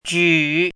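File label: "jǔ", Chinese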